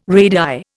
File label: "radii", Indian